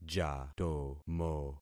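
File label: "jatomo", concatenated syllables; Affixing experiment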